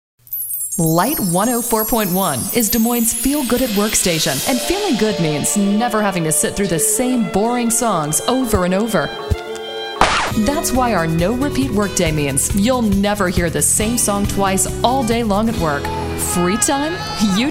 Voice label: radio imaging female